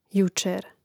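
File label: "jučer", Croatian; mreznik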